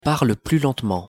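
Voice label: "parle plus lentement", French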